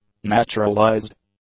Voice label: "naturalized", english; adjectives

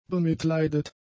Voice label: "bemitleidet", German; adjectives

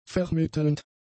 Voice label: "vermittelnd", German; adjectives

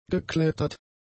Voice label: "geklettert", German; adjectives

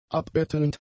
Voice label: "abbettelnd", German; adjectives

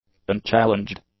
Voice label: "unchallenged", English; adjectives

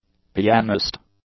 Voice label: "pianist", English

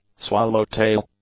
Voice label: "swallow tail", english; nouns

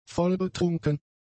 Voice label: "vollbetrunken", German; adjectives

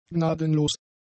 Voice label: "gnadenlos", German; adjectives

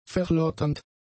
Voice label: "verlotternd", German; adjectives

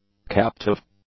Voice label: "captive", English; adjectives

